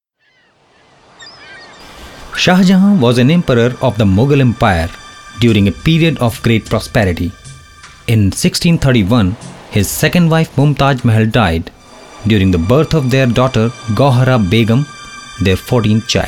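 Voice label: history narration, male voice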